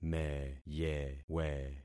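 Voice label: "meyewe", concatenated syllables; Affixing experiment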